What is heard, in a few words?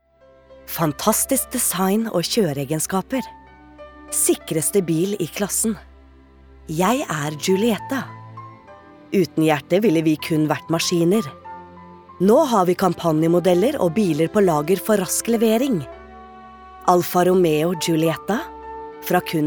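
Norwegian soft sell, kvinne; norsk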